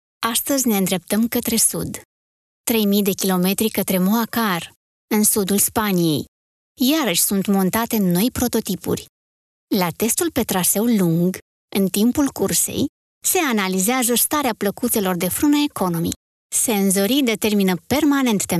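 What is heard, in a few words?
Romanian female narration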